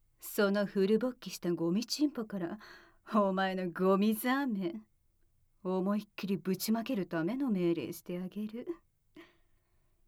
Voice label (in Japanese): シコシコボイス責め, ASMR